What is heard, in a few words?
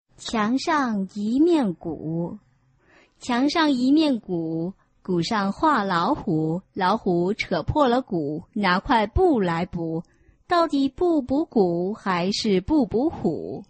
chinese tongue twister